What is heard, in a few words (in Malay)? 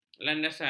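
"landasan", Malay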